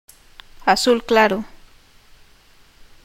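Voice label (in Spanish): "azul claro" Spanish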